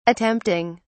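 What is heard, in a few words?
"attempting", English, British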